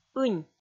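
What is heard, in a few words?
"ынь", Komi, female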